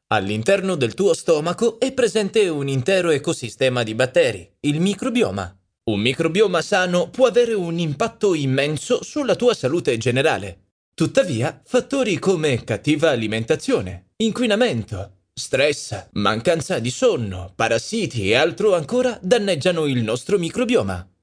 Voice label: ITALIAN